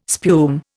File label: "spume", Indian